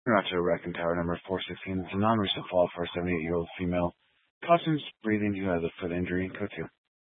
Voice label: Fire Department Dispatch; Grand Junction Fire Department Dispatch